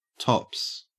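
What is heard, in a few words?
"tops", Australian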